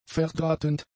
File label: "verdrahtend", German; adjectives